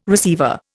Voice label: "receiver", Indian

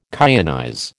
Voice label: "kyanize", American

